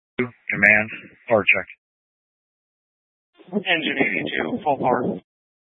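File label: Incident Tac 2, Scanner audio recording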